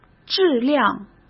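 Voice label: "质量", Mandarin Chinese; hsk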